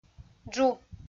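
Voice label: "джу", Komi, female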